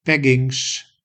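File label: "peggings", Dutch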